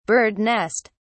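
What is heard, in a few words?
"bird nest", British English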